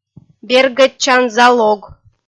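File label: "бергӧдчан залог", Komi-Zyrian, female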